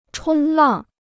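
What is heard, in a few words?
"冲浪", Chinese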